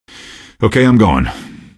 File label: squad affirm, male; npc; Garry's Mod; hl2